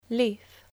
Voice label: "leaf", English